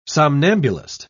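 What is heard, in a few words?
"somnambulist", British English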